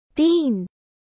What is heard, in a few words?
Three hindi